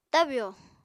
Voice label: "tabyò", Apatani